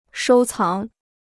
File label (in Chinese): "收藏", Chinese